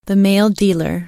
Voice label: male dealer man